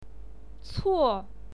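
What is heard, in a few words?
"cuo", Mandarin Chinese, fourth tone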